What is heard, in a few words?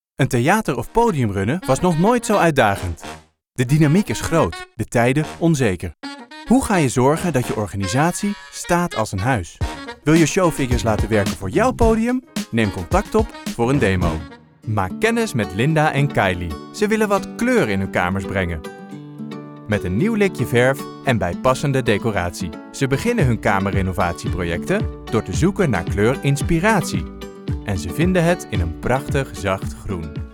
dutch explainer videos